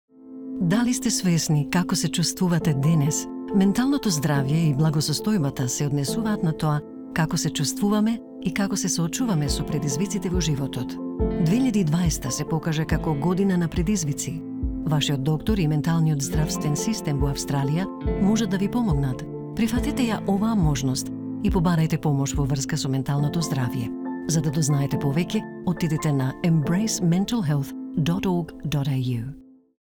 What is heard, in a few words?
Mental Health Awareness - Macedonian